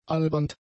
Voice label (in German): "albernd", German; adjectives